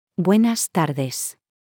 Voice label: Spanish Good evening early afternoon until twilight; Greetings